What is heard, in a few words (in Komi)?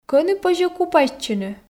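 кӧні позьӧ купайтчыны, Komi, female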